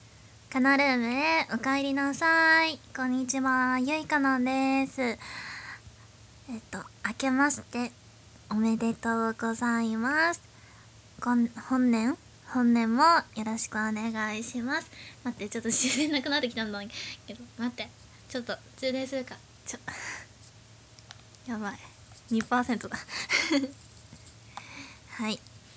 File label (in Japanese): OPトーク, ASMR